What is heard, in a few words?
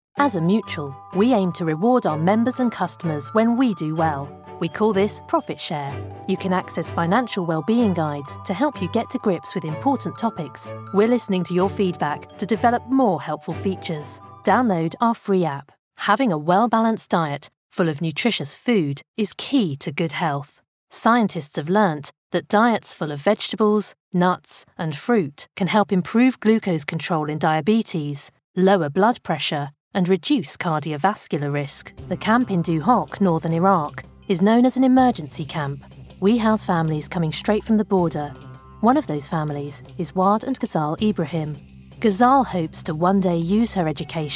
Corporate Showreel; VO Pro Cast